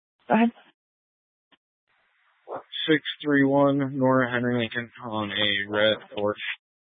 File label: Mesa County Sheriff Dispatch; scanner